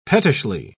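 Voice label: "pettishly", English, British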